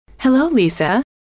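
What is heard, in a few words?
hello, female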